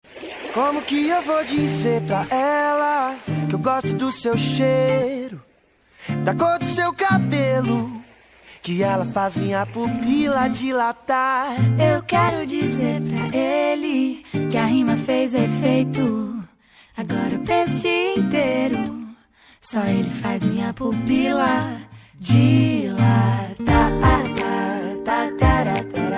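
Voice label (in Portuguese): dia dos namorados inicio feminina, suave voz feminina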